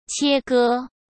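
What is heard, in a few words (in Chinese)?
"切割", Mandarin Chinese; hsk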